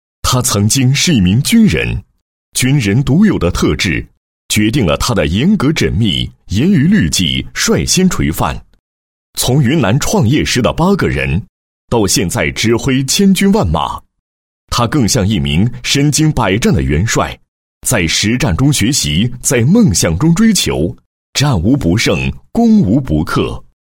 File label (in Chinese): 男 人物 集团老总 事迹; 某集团老总张某事迹